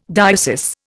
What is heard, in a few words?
"diocese", Indian